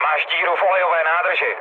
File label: damaged oil, speech cze, mood low; War Thunder